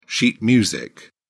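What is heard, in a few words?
"sheet music", British English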